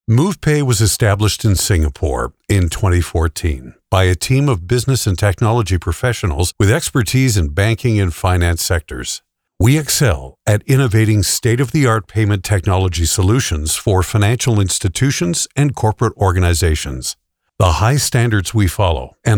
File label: english canadian e-learning